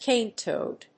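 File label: "cane toad", English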